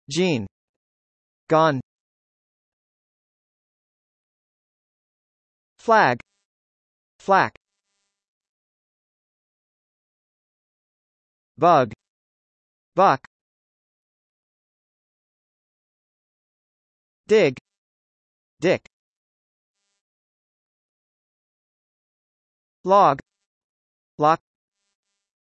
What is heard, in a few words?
g, US; der buchstabe g